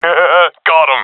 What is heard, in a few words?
i killed enemy, professional epic; vo; Source Model Packs; Counter Strike Model Pack; CS2